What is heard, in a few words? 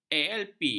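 "alp", Malay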